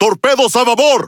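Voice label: Spanish speech alarms torpedo left; World Of Warships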